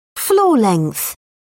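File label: "floor length", British English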